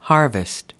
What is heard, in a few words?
"harvest", us